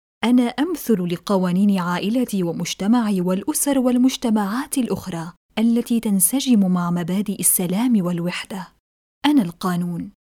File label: Arabic Female